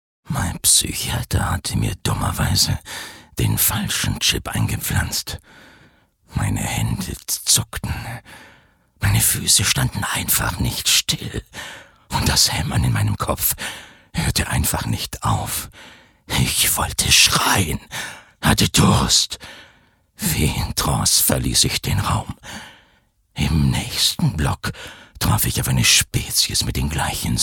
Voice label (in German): Schauspiel Sanft